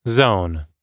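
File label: "zone", English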